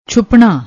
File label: "chhupnna", Punjabi